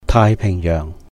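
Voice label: "Taai Pìhng Yèuhng", the Pacific Ocean, Cantonese; 太平洋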